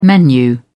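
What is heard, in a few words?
"menu", British English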